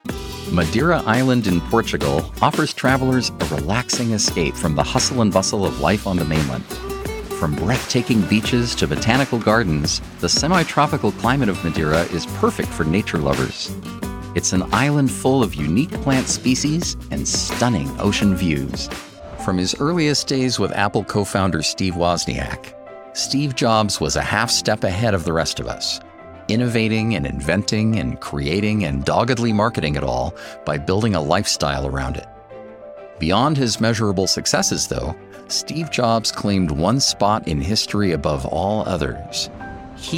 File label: narration